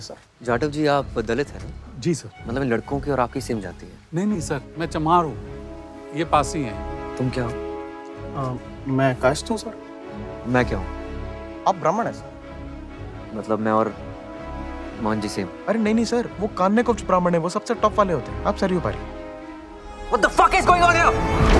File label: Dialogue Ringtone; Article 15; Ayushman